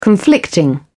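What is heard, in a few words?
"conflicting", British English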